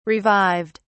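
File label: "revived", British English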